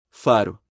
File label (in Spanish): Faro en portugues